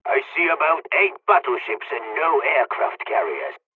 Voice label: japanese first wave, dialogs serbian; War Thunder